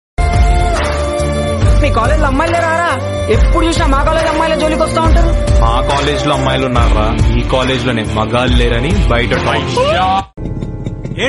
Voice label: movie dialogue bgm; rowdy boys

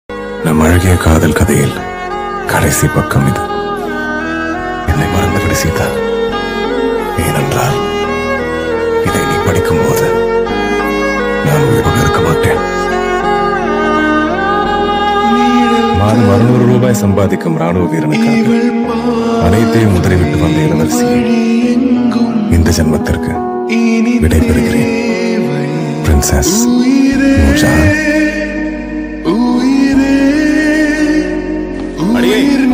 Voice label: movie sad dialogue efx bgm tamil sad; sita ram movie

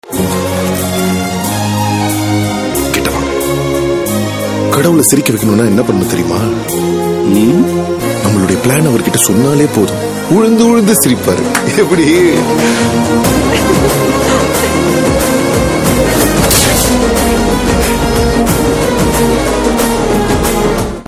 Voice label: Teaser Dialogue; Eeswaran; Silambarasan

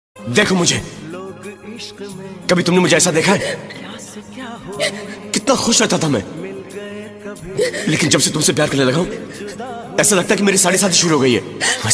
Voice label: love sad ringtone, sad dialogue; hindi

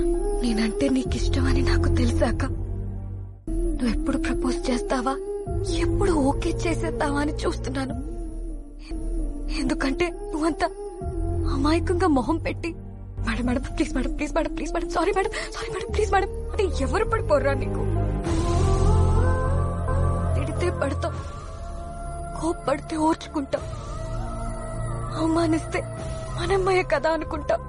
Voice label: Dialogue Heart touching Telugu; Geetha Govindam

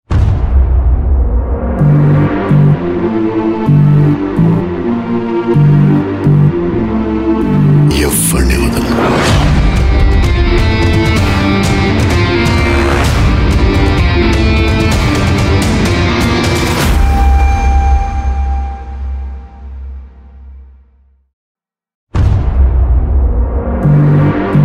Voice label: dialogue bgm; salaar; prasanth neel

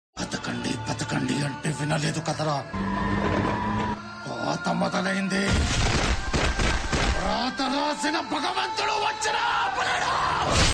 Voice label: fight dialogue ringtone; dhammu; jr ntr

